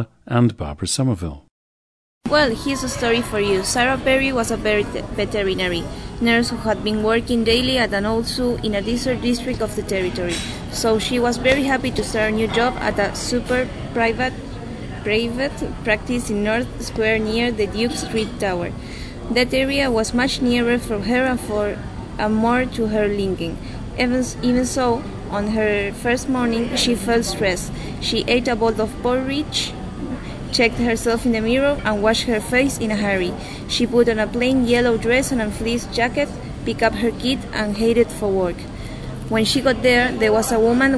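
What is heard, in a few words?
argentina, dialects of English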